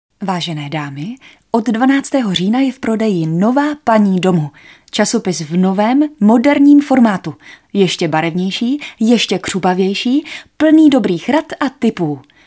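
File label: female Czech commercial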